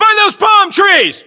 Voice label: US landmark near palmtrees, battlechatter, english